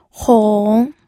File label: "hong3", pinyin audio